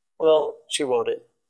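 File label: Voice Acting, Spanish, male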